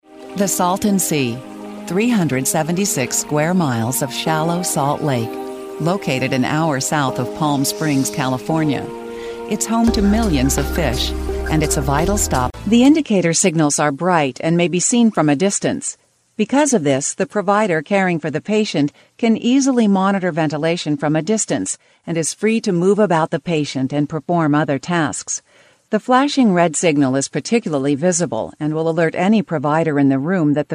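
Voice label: English US Narrative; GoLocalise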